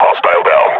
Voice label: announce kill, combine grunt